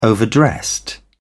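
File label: "overdressed", British English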